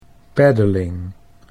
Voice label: "paddling", English